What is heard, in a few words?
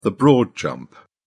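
"broad jump", British English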